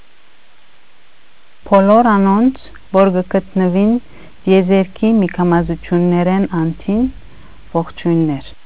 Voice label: armenian; Golden Record